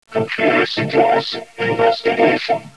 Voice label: robot voice; valve; cinima